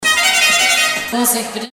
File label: octoberfest animateur